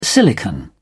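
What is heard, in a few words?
"silicon", British English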